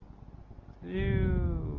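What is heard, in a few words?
Hellooooow, voice clips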